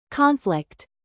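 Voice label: "bass", noun, British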